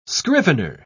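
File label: "scrivener", British English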